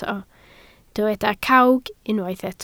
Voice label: "cawg", Welsh

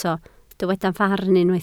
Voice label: "farn" Welsh

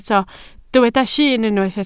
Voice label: "llun" Welsh

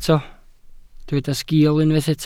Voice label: "sgil", Welsh

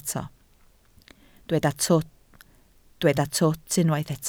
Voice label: "twt", Welsh

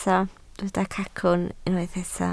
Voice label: "cacwn", Welsh

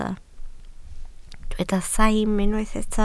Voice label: "saim", Welsh

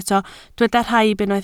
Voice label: "rhaib", Welsh

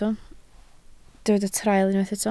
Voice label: "traul", Welsh

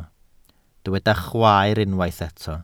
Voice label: "chwaer", Welsh

